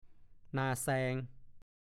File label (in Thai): "นางห่อง", ภาษาโคราช; 1. หญิงที่อยู่แต่ในห้องไม่ยอมออกไปไหน